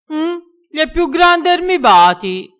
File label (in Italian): "gli e", vocabolario dialettale